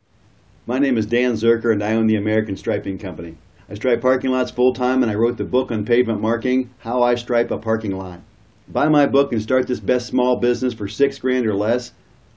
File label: Voice loud balance